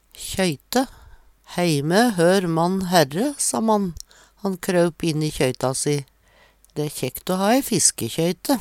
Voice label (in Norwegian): kjoyte, Numedalsmål